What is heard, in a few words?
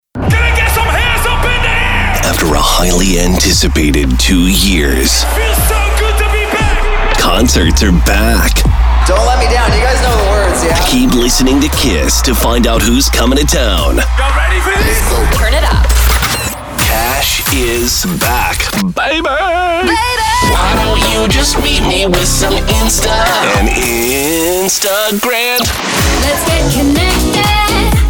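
radio imaging men contemporary hit radio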